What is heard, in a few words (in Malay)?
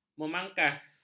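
"memangkas", Malay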